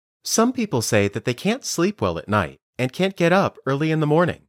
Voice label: Dictation